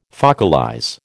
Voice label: "focalize", American